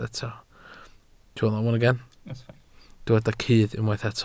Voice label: "cudd", Welsh